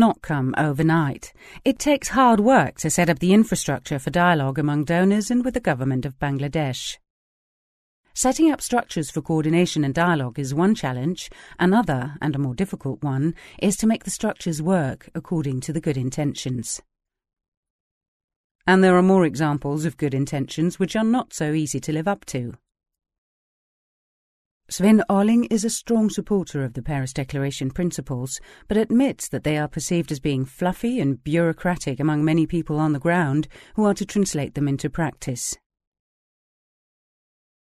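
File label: Danish English F Narration, female, accent of Denmark; voice over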